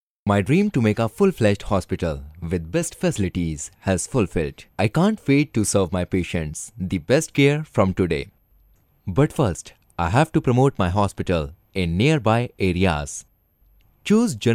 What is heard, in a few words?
Male, English, India